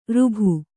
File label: "ಋಭು", Kannada